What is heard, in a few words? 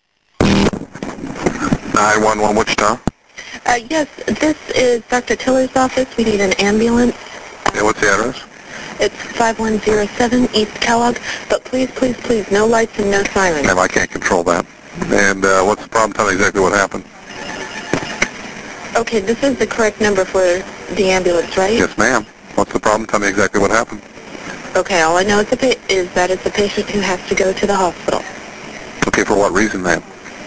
911 Call